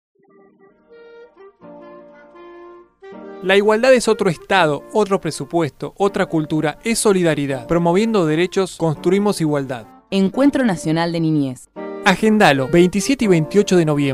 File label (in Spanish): spot foro